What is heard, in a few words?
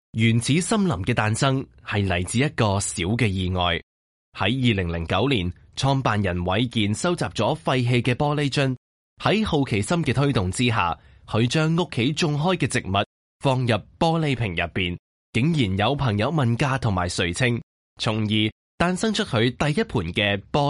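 Chinese Cantonese male; voice over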